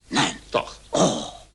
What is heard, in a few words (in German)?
"nein doch oh" ringtone